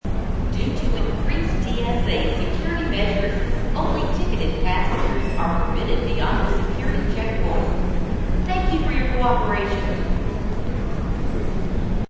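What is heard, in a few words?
Airport Security Announce, Secuirty announcement in airport.; Backgrounds; Airport Security Announcment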